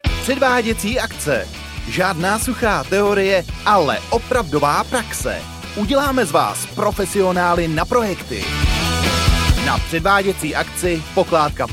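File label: Czech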